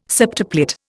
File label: "septuplet", Indian